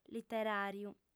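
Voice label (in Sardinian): "literàriu", Sardinian, female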